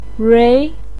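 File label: "rui", Chinese pinyin, second tone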